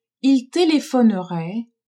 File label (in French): "ils telephoneraient", french